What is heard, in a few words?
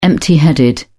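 "empty-headed", British English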